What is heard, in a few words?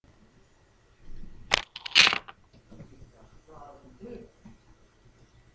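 "book", spoken word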